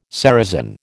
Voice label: "ceresin", American